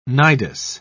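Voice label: "nidus", British English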